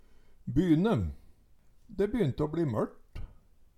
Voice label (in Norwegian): "byne", Numedalsmål